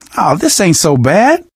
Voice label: zombie generic short, survivor voice; source engine; left 4 dead 2; manager